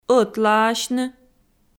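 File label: "ӧтлаасьны", Komi, female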